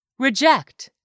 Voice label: "reject", English; 3000 words